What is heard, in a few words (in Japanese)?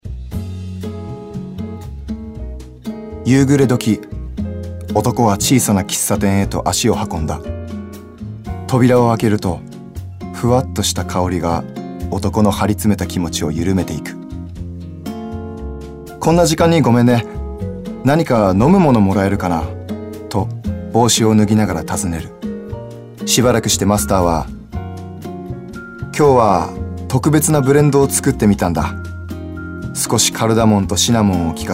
モノローグ 大人